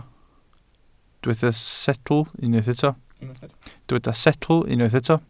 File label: "setl", Welsh